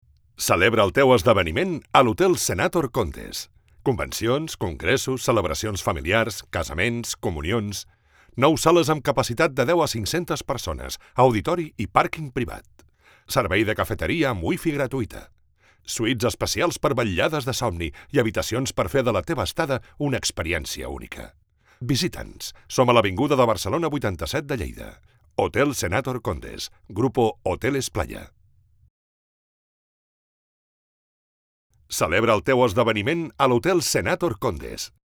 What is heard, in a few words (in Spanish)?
comercial catalán